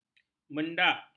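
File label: "mendak", Malay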